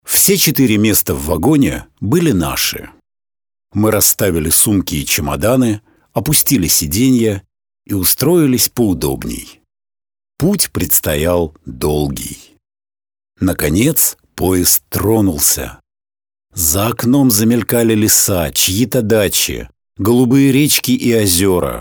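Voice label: Russian male Casual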